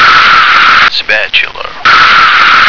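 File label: "spatula", American English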